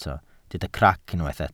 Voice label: "crac", welsh